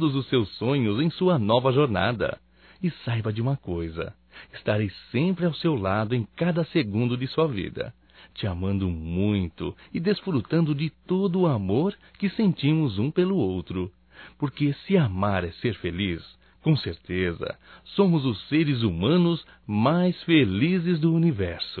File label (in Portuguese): ANIV Romântico (M); Telemensagens 2017; Aniversarios